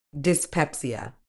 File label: "dyspepsia", uk